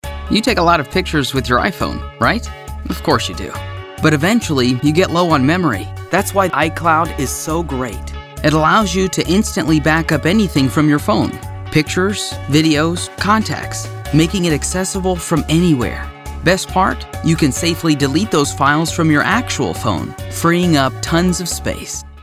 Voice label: Narration